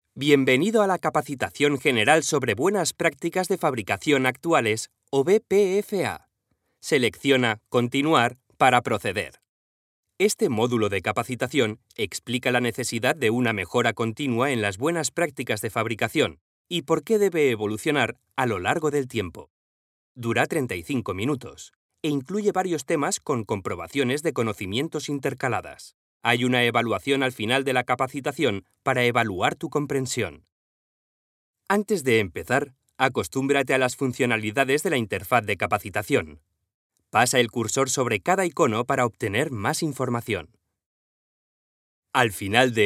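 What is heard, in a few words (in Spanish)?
E-Learning Tono Medio Natural, voz en off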